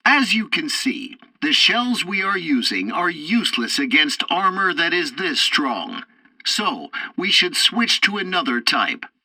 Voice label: tutorial tank arcade fourth target shells, english; War Thunder